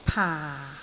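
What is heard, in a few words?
"paa4", Cantonese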